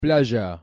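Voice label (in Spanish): "playa", Argentina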